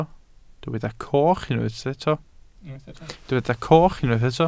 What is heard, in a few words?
"coch", Welsh